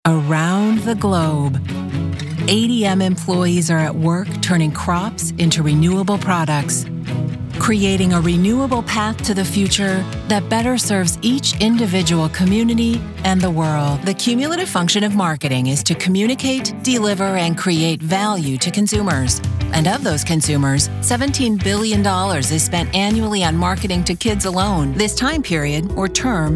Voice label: Narration